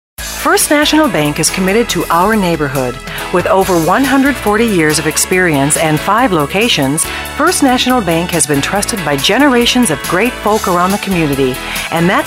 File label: On-hold Female